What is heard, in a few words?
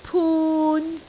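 "pun3", Cantonese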